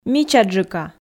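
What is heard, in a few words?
"мичаджыка", Komi, female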